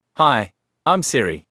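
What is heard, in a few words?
Australian English; iOS 26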